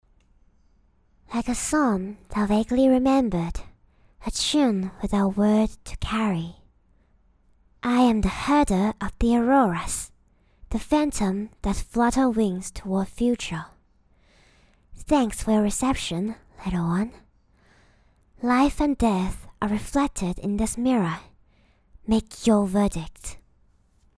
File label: English Audition Voice Actor